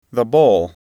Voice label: "bowl", English

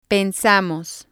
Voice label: "pensamos", spanish

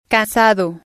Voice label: "casado", spanish

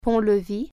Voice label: drawbridge, french

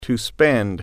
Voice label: "spend", English

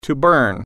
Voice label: "burn", English

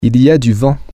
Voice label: "its windy", french

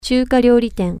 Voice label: chinese restaurant, japanese

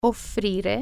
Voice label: offer, italian

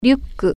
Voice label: backpack, japanese

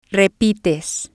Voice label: "repites", spanish

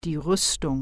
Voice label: "armor", german

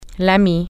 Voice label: friend, french

